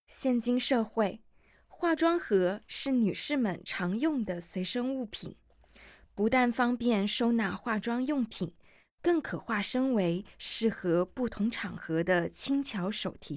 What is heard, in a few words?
Mandarin, audio guide